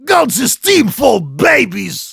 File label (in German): Heavy taunts, de